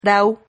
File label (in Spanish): "rahu", Silabas